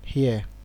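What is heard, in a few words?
"here", Nigerian Pidgin, male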